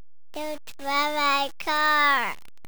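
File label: "car", French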